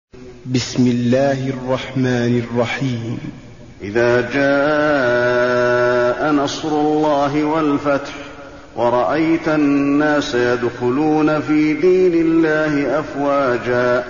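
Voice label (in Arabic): النصر, تلاوة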